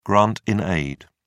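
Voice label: "grant-in-aid", English, British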